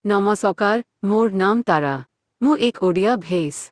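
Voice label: Odia, India; iOS 26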